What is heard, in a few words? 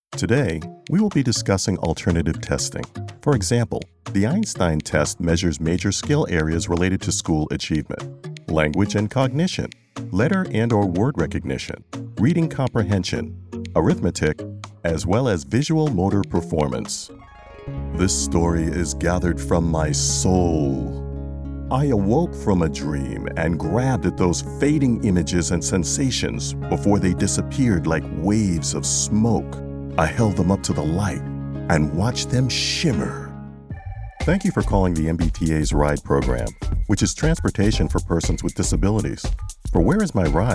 narration